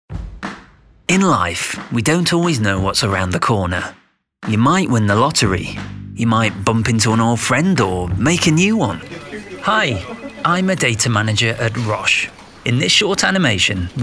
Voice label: Corporate